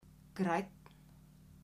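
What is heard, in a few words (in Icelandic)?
"grænn", Icelandic, female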